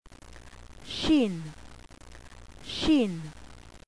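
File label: "shin", Hebrew letter; qabalah; alfabeto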